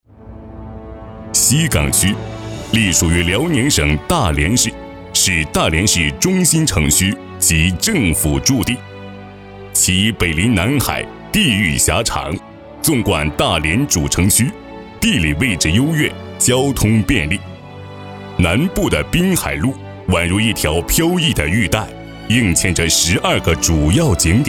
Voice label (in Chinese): 城宣 文化旅游推介; 西岗区